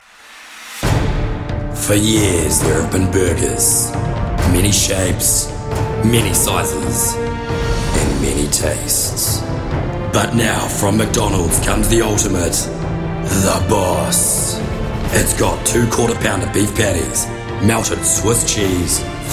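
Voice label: english New Zealand male commercial; voice demos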